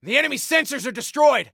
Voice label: Enemy Sensors, Soldier Male; Soldier Male 01

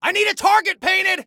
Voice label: Need, Soldier Male

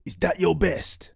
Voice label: Taunt Obnoxious; StowAway

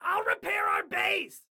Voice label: Self Repair Base, Excited Guy

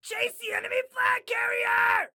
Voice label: Attack Chase, Excited Guy

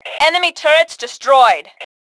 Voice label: Enemy Turrets, Female; T2 Female 01

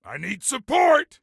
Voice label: Need Support, Soldier Male; Soldier Male 03

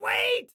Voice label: Team Wait, Excited Guy

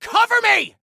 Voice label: Defend Me, Soldier Male; Soldier Male 01

